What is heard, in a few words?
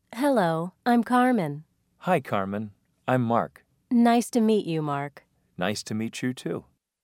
speaking practice